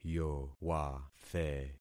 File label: "yowathe", concat syllables; Affixing experiment